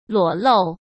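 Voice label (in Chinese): "裸露", Mandarin Chinese; chinese-flashcards; hsk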